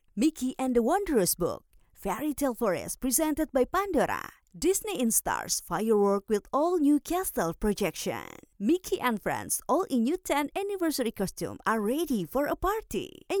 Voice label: disneyland english

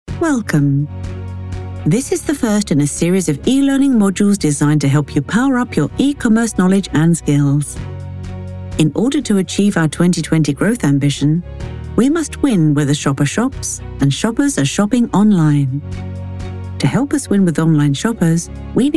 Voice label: eLearning

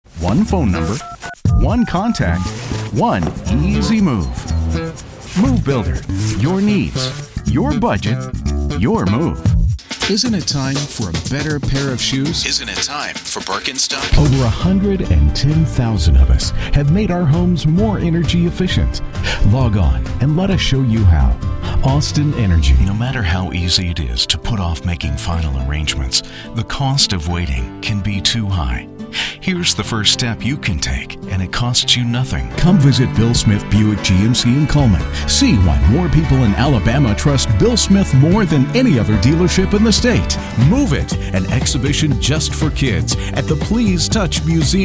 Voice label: Commercials

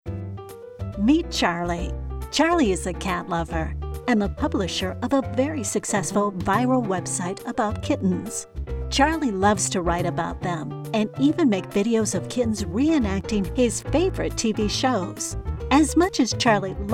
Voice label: Animation Explainer

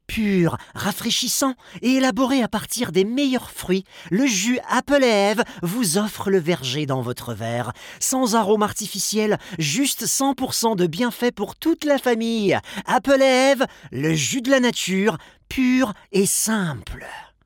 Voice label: French, male, warm, dynamic; Apple Eve

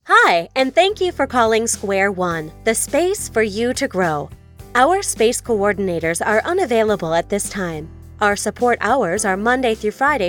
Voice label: Telephony

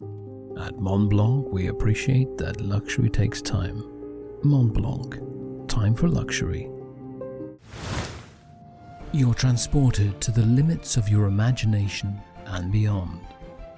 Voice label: Commercial Voice Reel

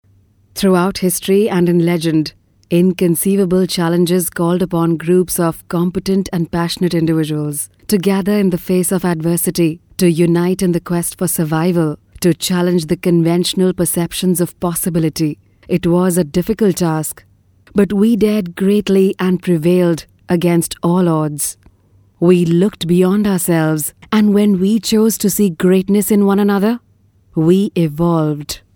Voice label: Game Sample Dry; Evolve

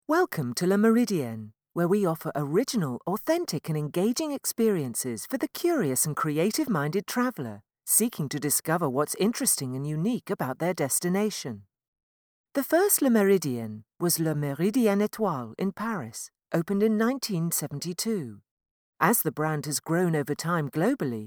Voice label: RELAXED INFORMATIVE Elearning VO

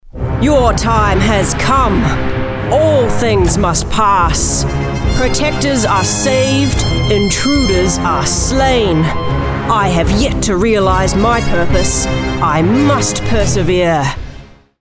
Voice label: Dramatic Game read

